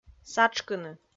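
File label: "сатшкыны", Komi, female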